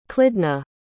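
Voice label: "clidhna", English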